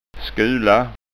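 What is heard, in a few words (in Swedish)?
"skula", Scanian dialect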